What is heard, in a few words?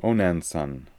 "Onanson", pronounciations; Fourth Way; Beelzebub